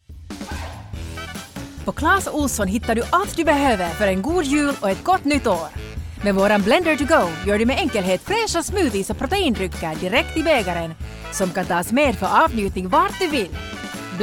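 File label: Finnish, accented Swedish; GoLocalise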